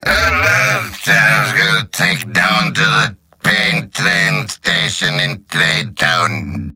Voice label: gibberish; Demoman; mvm